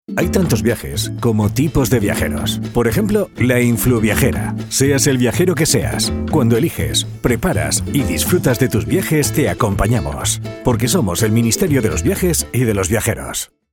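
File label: comercial, Spanish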